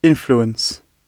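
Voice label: "influence", British English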